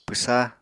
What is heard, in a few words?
"pwsa", Apatani